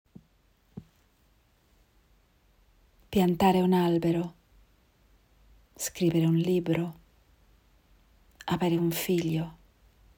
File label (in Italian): Piantare un Albero Italienisch